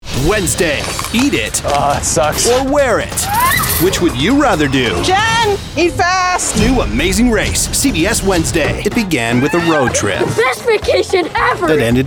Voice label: Promo